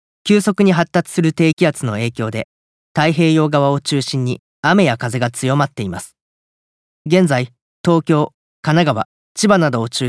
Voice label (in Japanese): 天気ニュース